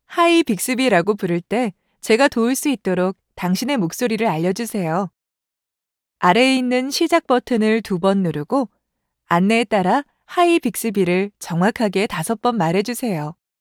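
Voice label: wake up ready Korean; Bixby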